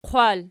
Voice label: "qwal", Archi

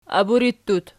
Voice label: "aburittut", Archi

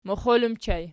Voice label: "moxol", Archi

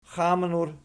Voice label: "xamnur", Archi

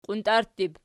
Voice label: "qiuntarttib", Archi

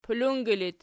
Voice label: "pulungi", Archi

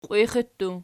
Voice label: "qiwixi", Archi

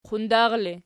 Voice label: "qundar", Archi

